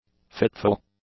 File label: "fitful", english; adjectives